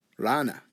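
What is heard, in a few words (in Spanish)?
"rana", Spanish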